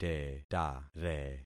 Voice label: "tetare", concat syllables; Affixing experiment